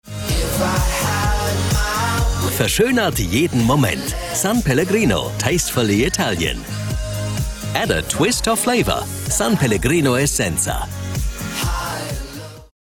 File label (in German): sprecher werbung